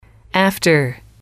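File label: "after", female, English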